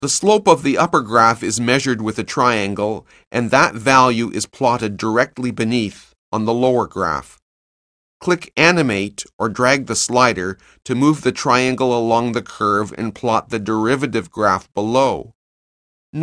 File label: narration; CALCULUS 7E; cengage